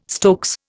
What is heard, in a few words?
"stokes", Indian